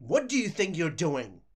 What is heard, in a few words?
start, voices angry pursuit; DanisRace